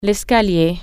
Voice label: staircase, french